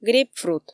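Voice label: "грейпфрут", Komi, female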